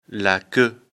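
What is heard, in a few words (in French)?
"queue", French; afrikalan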